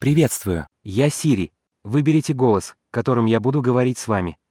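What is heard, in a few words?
Russian, Russia; iOS Sounds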